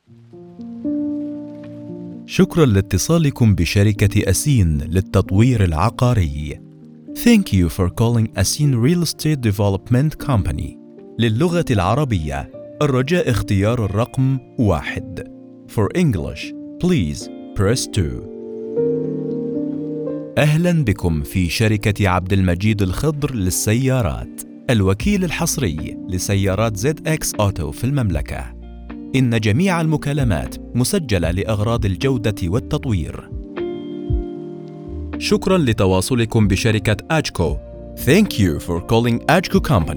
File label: IVR